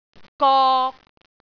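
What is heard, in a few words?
"gok3", Cantonese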